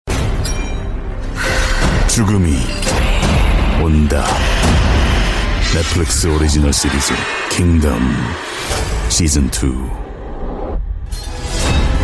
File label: korean movie trailers